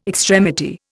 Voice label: "extremity", Indian

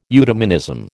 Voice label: "eudemonism", American

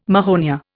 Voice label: "mahonia", Indian

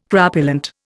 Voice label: "crapulent", Indian

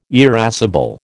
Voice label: "irascible", American

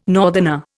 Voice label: "northerner", Indian

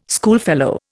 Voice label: "schoolfellow", Indian

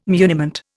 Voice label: "muniment", Indian

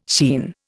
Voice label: "sheen", Indian

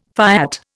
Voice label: "fiat", Indian

